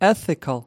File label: "ethical", us